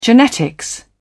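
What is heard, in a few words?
"genetics", British English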